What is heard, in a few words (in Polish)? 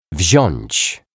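"wziac", Polish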